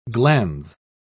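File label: "glandes", English, British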